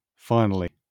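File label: "finally", British English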